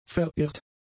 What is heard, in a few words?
"verirrt", German; adjectives